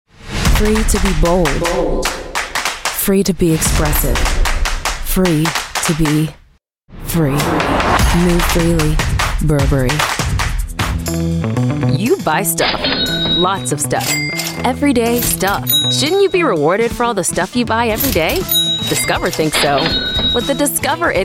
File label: COMMERCIAL